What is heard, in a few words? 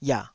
"iah3", Taiwanese syllable